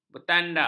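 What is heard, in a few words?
"bertandak", Malay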